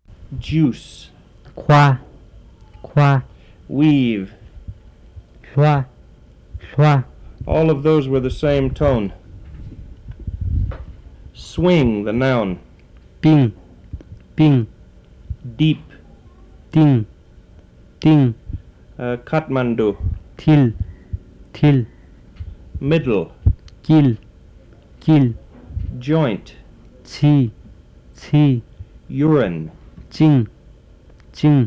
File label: Jirel Cons Vowels